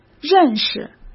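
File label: "认识", Mandarin Chinese; chinese-flashcards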